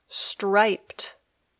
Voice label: "striped", English